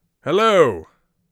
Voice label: hello, Mage Voice; Mage Voice Pack

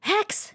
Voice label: hex, RPG Voice; RPG Voice Starter Pack

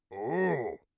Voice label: sceptical, orc voice; Orc Voice Pack

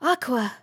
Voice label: aqua, RPG Voice; RPG Voice Starter Pack

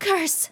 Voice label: curse, RPG Voice; RPG Voice Starter Pack

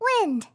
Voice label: wind, RPG Voice; RPG Voice Starter Pack

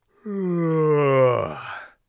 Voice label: sleepy, Warrior Voice; Warrior Voice Pack

